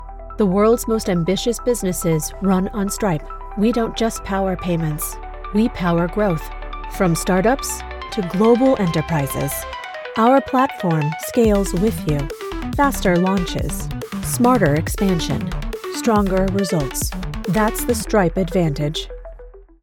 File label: Video Narration